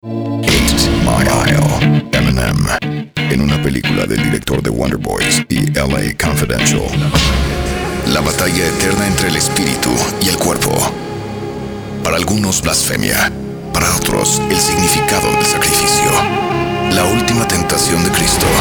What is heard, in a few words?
Spanish Trilers, locucion